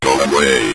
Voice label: go Away, Synth Voice; Kenney's Sound Pack